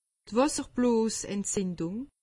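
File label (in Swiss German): "wasserblosentzindung", Alsatian; medecine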